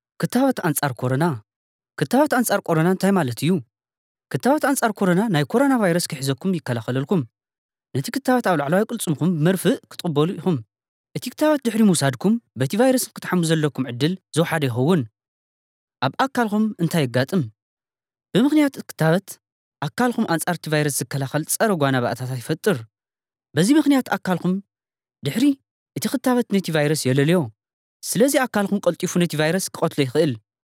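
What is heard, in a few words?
Tigrinya male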